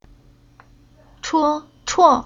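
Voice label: "Chuo", Chinese syllable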